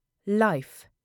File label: "life", uk